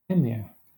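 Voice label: "emir", English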